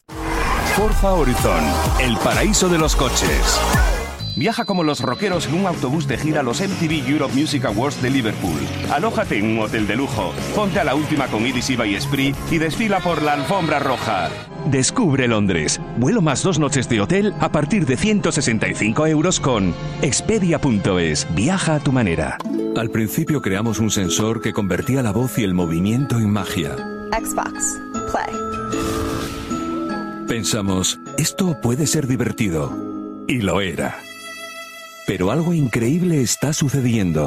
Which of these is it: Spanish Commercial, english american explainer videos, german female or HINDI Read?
Spanish Commercial